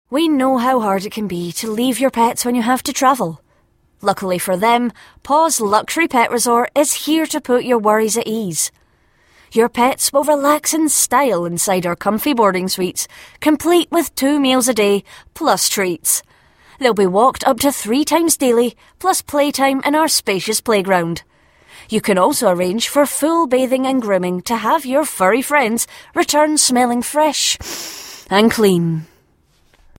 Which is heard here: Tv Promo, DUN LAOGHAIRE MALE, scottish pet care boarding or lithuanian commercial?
scottish pet care boarding